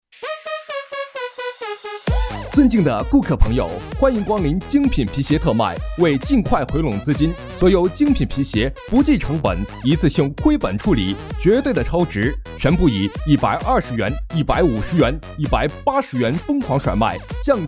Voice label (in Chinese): 激情促销叫卖